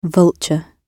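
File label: "vulture" British English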